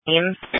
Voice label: Sheriff Dispatch; sdr